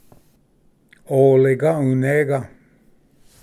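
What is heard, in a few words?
"oliga unega", Cherokee; ᎣᎵᎦ ᎤᏁᎦ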